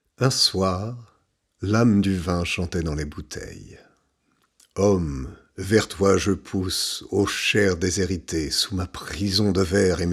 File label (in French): narration